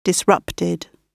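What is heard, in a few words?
"disrupted", British English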